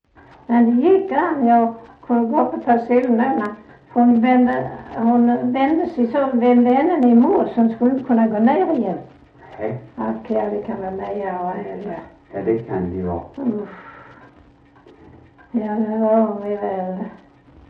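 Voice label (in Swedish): Sövde Skåne, Svenska sagor, dialekter; Folkminnen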